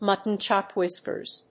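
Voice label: "muttonchop whiskers", English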